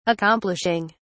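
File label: "accomplishing", British English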